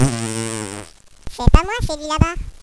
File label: children discuss; valve